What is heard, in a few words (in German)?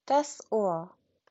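"ohr", German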